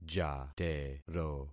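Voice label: "jatero", concatenated syllables; Affixing experiment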